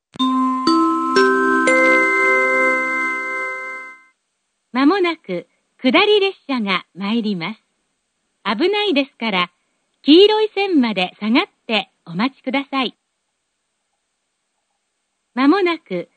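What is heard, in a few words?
platform 3 approaching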